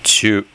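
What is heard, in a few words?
"tyu", Japanese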